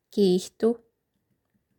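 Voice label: "Giitu!", Northern Sami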